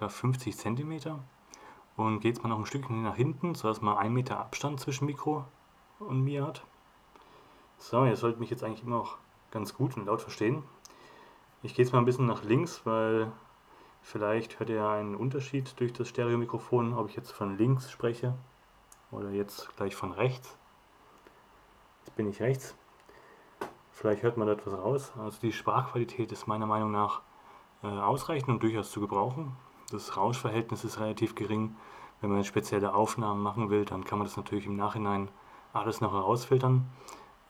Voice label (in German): aukey webcam soundcheck